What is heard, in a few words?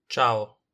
"ciao", Italian